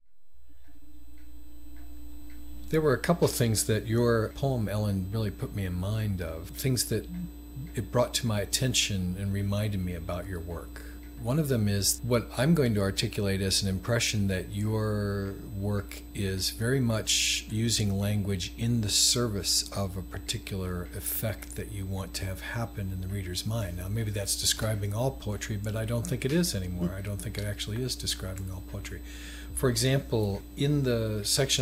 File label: interview